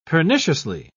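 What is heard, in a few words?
"perniciously", British English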